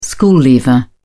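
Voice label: "school leaver", British English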